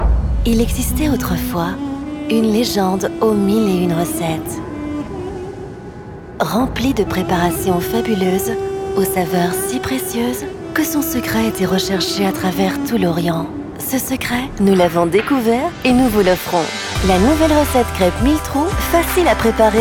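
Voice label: Commercial French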